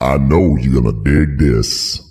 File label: i know you going to dig this male; Voice; introductions